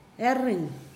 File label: "brown coconut", Pingelapese